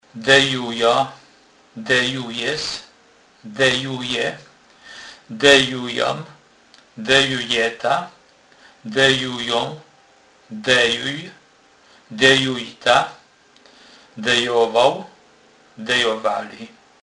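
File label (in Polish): "dejowac", Kurpie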